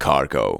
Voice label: "CARGO", SPOKEN; Techno Trance Essentials